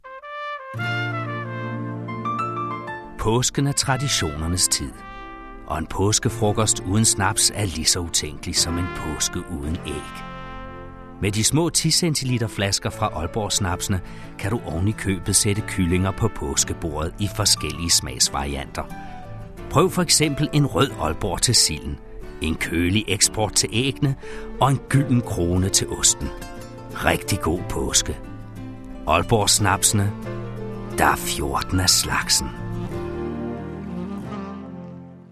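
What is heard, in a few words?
Danish; Danish, Scandinavian, Male, Home Studio, 20s-30s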